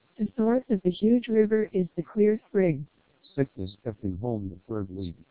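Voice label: twelp short snr 10 db ncse, TWELP 1200 bps, NCSE Enabled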